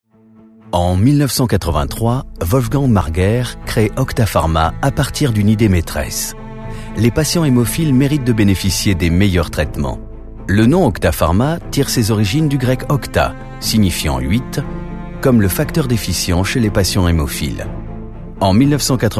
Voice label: narration; Octapharma